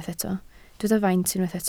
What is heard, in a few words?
"faint", Welsh